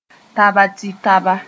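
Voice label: "taba-tɩ-taba", Mooré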